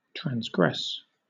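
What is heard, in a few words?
"transgress", British English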